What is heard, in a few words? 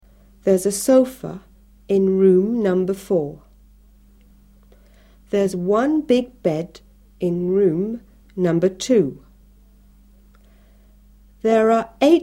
english test